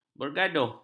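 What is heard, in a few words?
"bergaduh", Malay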